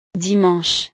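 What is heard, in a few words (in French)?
"dimanche", French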